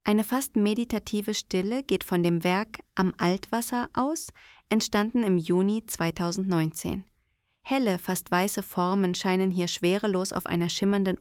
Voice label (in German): voice audio guide; am altwasser